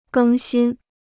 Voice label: "更新", Chinese

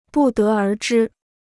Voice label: "不得而知", Chinese

